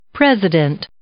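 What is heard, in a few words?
"president", English, female; noun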